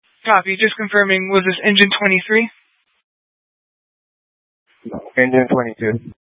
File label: Fire Dispatch